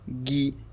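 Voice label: "gui", French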